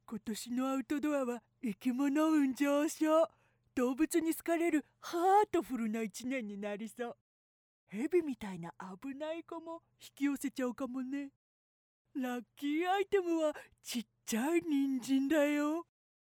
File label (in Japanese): outdoor